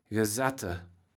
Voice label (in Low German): "Gesatte", Platt